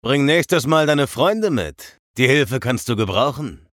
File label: vo chatter positive; eisen; frag